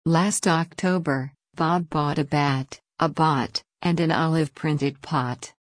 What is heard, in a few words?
Tongue Twister back a